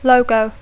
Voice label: "logo", English

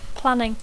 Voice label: "planning", English

